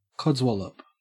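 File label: "codswallop", Australian English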